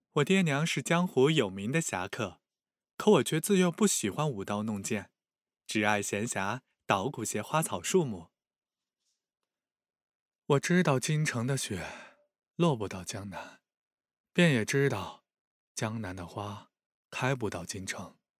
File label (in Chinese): 书生音